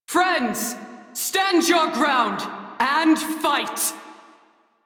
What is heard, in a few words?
Crew Enemy Spot, Female; Dialog; Warframe; Railjack Crew; New Loka